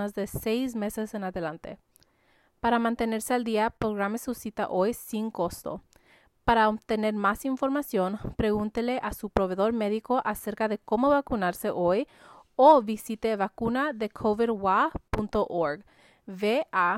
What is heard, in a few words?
COVID19 Booster Hold Message Spanish